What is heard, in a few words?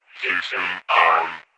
robot system on